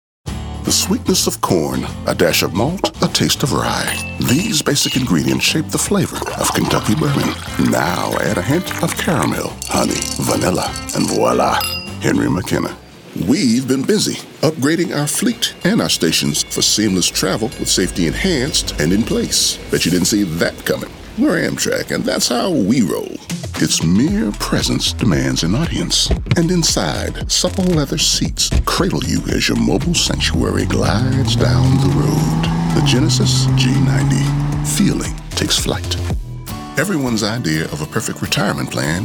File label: commercial